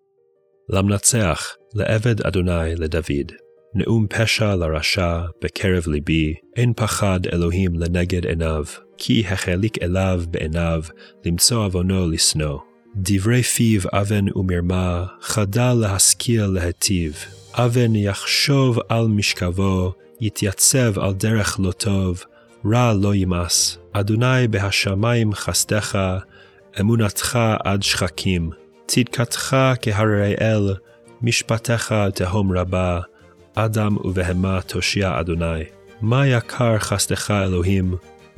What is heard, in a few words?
psalm reading hebrew; Psalm 36; recitation; Poetry & Prayer: A Daily Journey Through the Psalms